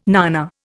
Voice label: "nana", Indian